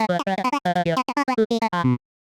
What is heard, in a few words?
chipper medium clear, voice archetypes; voxel audio preview